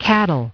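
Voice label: "cattle", English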